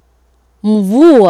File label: "mvua", Swahili